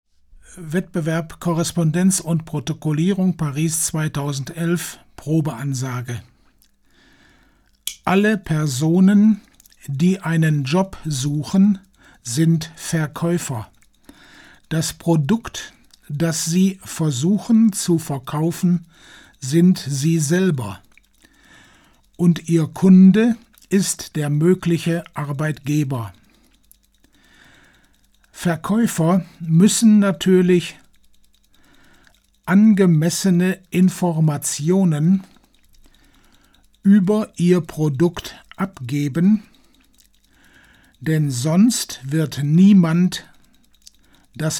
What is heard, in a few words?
German test; Paris 2011; Deutsch